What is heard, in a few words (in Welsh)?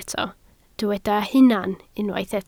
"hunan" Welsh